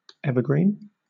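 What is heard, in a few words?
"evergreen", British English; pronunciations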